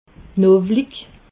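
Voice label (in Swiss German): "knowlig", Alsatian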